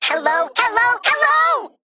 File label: Male Mech; Annoy O; Time